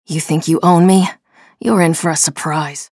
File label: chatter neutral; nyx